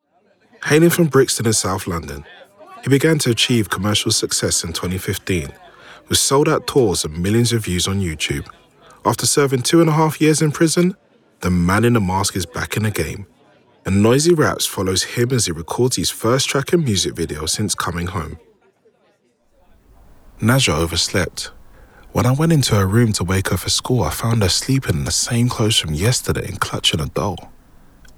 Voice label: Narrative Reel

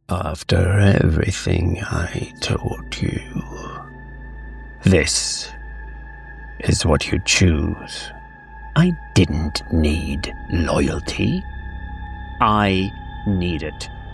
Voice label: ENGLISH character, Calm, Warm

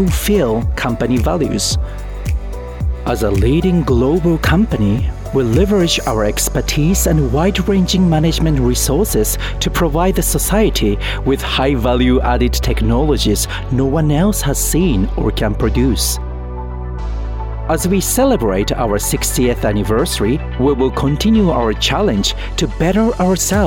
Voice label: Corporate English; TokyoElectron

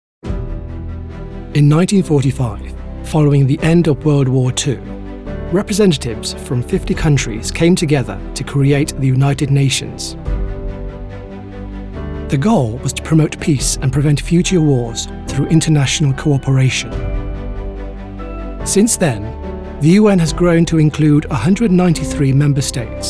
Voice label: Narration

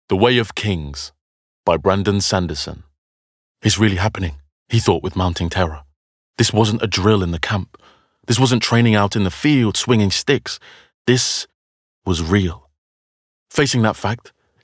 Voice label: audiobook

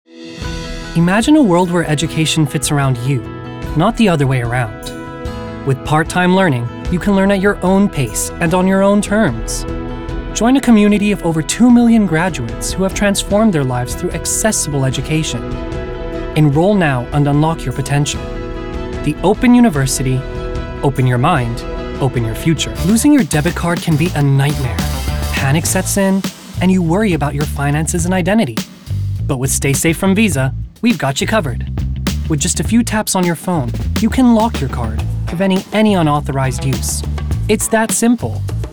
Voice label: Commercial

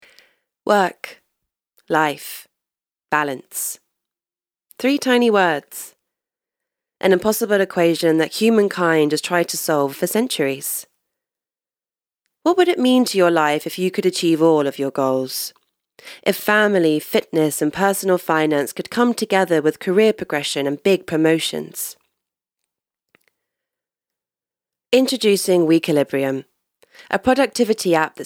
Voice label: Corporate Voice Reel

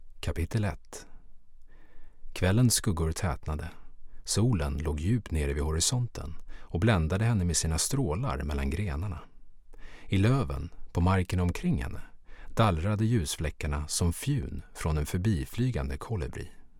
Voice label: Voice Over Swedish Audiobook Narration

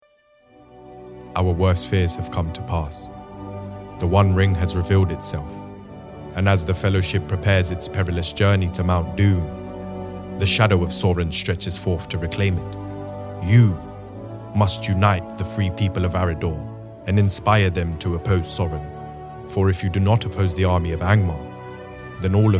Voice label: Narration

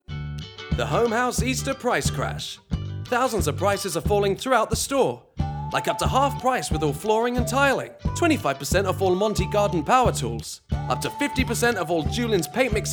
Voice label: Commercial Voice Reel

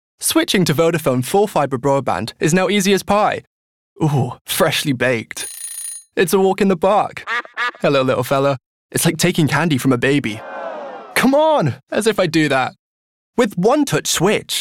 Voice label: COMMERCIAL

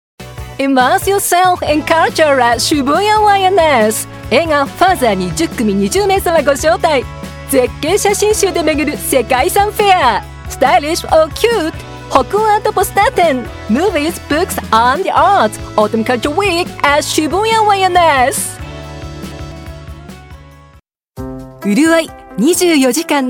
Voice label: Commercial in Japanese; English Japanese

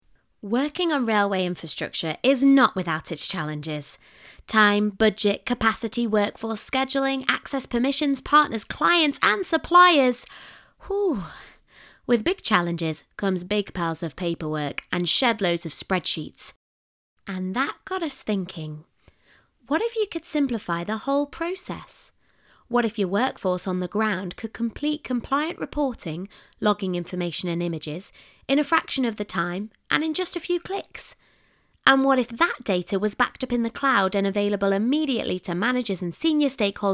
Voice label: Corporate

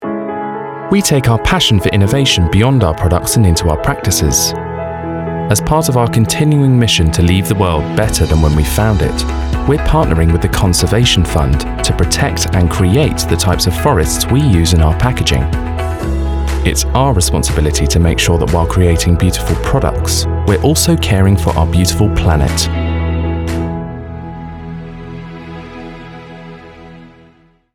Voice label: Corporate

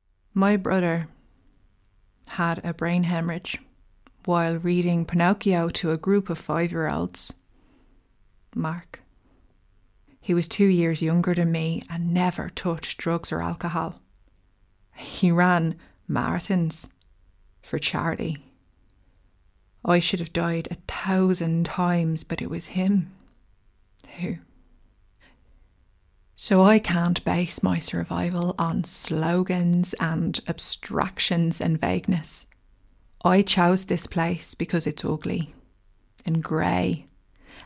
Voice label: Irish Accent

